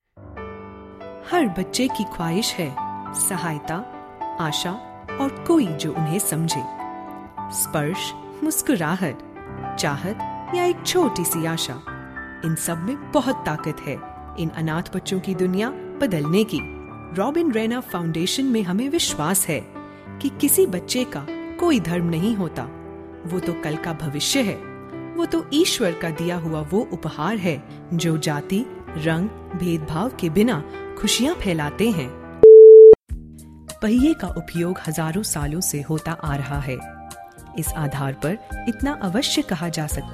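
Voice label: Hindi narration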